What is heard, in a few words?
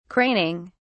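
"craning", English, British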